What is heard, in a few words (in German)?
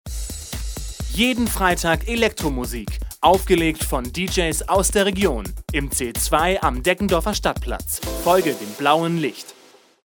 Werbung Reminder